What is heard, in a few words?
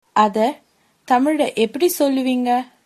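how to say, Tamil, female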